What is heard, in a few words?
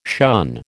"shun", American